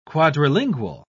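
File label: "quadrilingual", English, British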